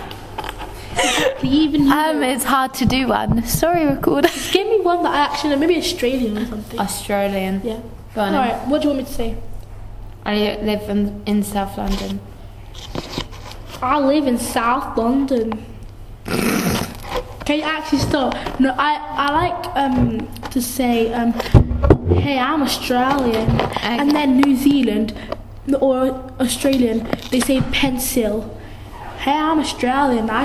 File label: Accent Challenge